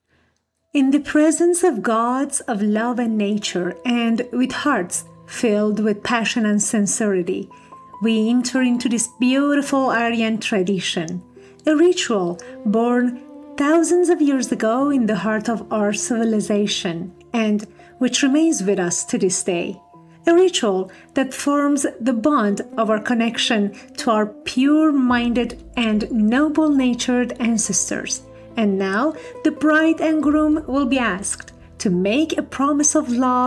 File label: english voice sample